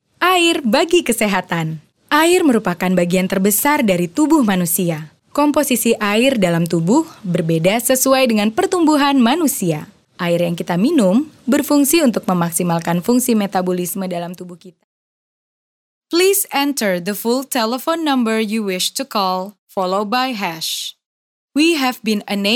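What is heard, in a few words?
Indonesian, female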